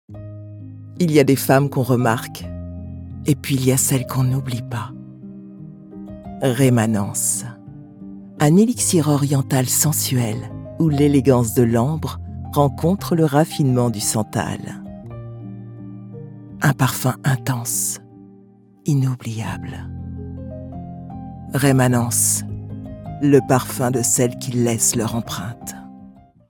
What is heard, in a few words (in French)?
pub luxe parfum, voix off; Démos de voix off féminine